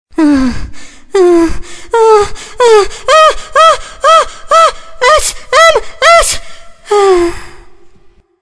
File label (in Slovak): sms hlásky cz